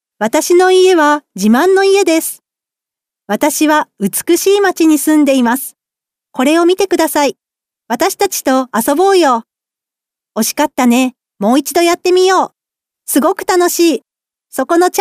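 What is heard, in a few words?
Japanese female children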